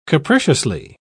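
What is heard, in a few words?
"capriciously", English, British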